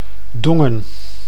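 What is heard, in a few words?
"Dongen", Dutch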